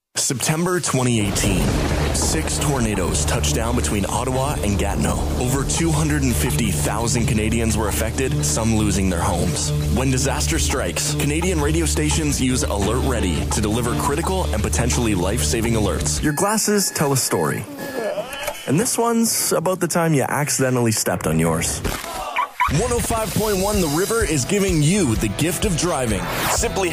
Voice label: USA